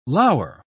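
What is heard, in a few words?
"lour", British English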